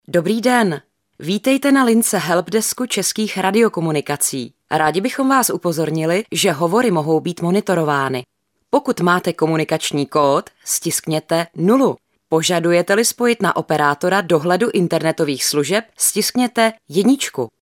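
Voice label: Female Czech IVR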